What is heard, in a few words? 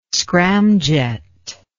"scramjet", English